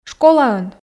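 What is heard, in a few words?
"школаын", Komi, female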